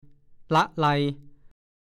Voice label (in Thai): "ล่ะไล", ภาษาโคราช; ยอดไม้ หรือยอดผักที่แตกยอดอ่อน ๆ